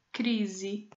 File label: "crise", portuguese